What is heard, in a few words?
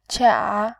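"qia", Mandarin, tone 3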